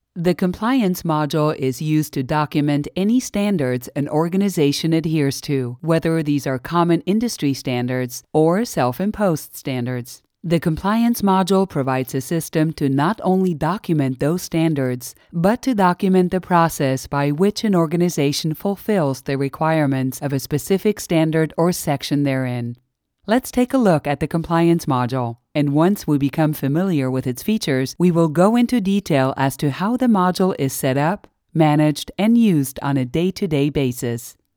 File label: Elearning English